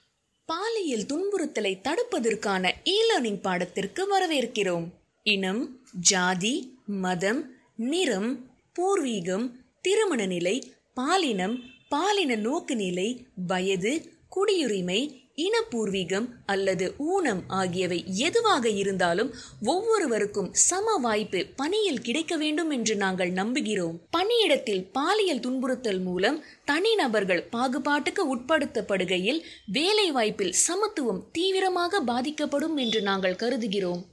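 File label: e-learning, Tamil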